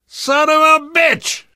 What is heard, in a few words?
reaction negative, survivor voice; source engine; left 4 dead 2; namvet